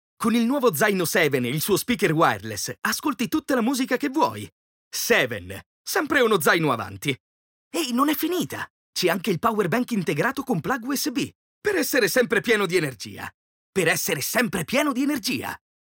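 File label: Italian male voice over, upbeat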